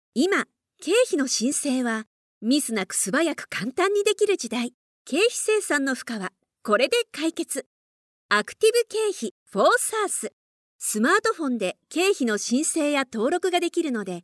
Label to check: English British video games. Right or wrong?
Wrong